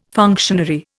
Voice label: "functionary", Indian

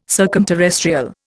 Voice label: "circumterrestrial", Indian

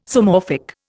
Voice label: "Zoomorphic", Indian

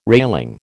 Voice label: "railing", American

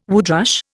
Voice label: "woodrush", Indian

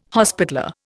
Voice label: "hospitaller", Indian

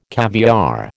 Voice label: "caviare", American

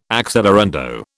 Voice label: "accelerando", British

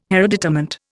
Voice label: "hereditament", Indian

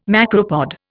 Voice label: "macropod", Indian

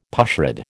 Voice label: "pushrod", American

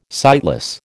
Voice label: "sightless", American

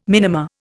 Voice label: "minima", Indian

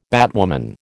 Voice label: "batwoman", American

